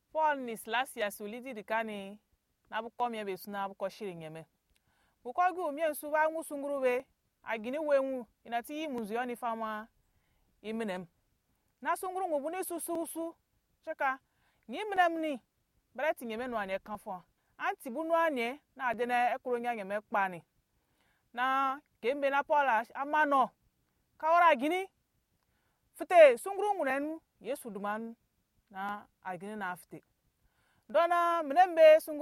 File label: Anufo; Chaine brisée; Worship in Chains